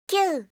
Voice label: "q", alphabet